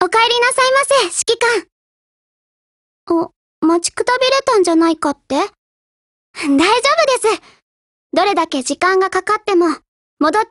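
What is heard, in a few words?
Japanese, Voice; GF Alarm; SSG3000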